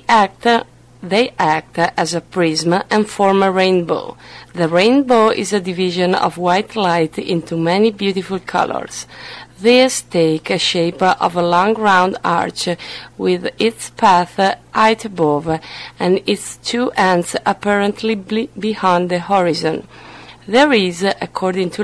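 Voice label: italy, International Dialects of English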